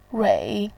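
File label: "rui", Mandarin Chinese, third tone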